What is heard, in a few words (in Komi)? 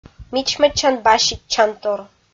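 "мичмӧдчан-баситчантор", Komi, female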